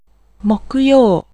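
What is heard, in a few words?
Japanese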